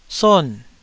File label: "son4", Taiwanese syllable